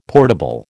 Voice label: "portable", American

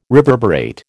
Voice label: "reverberate", American

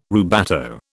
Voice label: "rubato", British

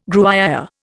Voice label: "Gruyère", Indian

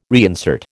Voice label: "reinsert", American

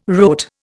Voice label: "rote", Indian

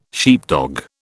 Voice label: "sheepdog", British

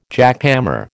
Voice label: "jackhammer", American

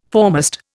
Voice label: "foremast", Indian

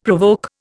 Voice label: "provoke", Indian; Dictionary

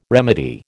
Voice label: "remedy", American

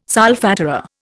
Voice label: "solfatara", Indian

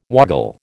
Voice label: "woggle", American

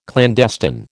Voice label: "clandestine", American

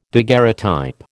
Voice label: "daguerreotype", American

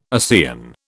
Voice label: "ASEAN", British

